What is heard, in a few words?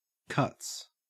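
"cuts", Australian English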